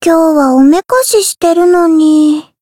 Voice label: encount, jp; yukino

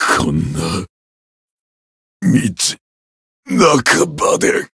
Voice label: retire, jp

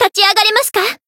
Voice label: heal, jp

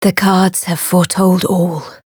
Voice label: Voice chant

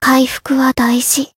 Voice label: heal, jp; ruina

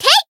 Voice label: attack, jp; thillelille

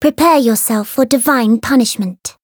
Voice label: variable chant

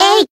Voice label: Voice attack, jp

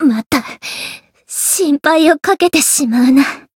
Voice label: voice win barely jp; tiramisu

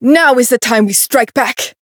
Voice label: Voice variable chant; herena

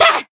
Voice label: attack, jp; tsukiha

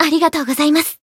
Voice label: Voice healed jp; veina

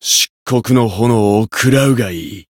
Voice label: Voice variable chant jp

